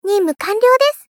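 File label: Polite, Victory, "Ninmu kanryo desu", Japanese female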